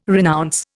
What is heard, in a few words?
"renounce", Indian